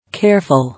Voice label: "Careful", English